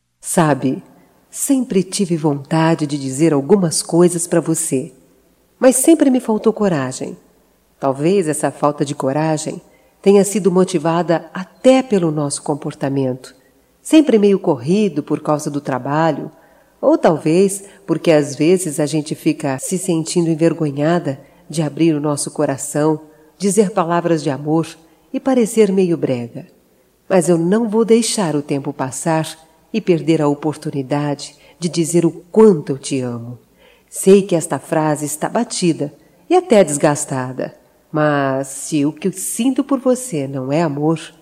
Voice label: Romântica Feminino

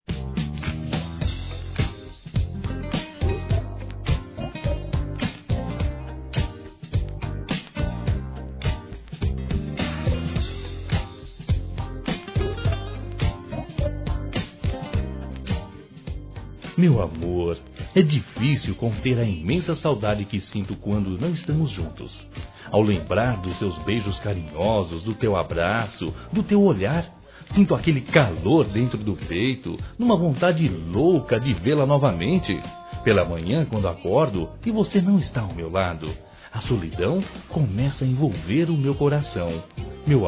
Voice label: pai Masculino; Telemensagens; Saudades

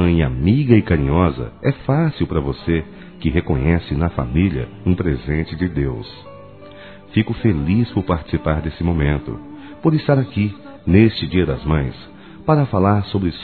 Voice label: AMIGO P MULHER MÃE M, Telemensagens; Dia das Mães; Masc; Telemensagens 2017

